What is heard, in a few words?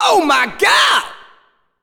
Oh My God! (Echo); gameplay failed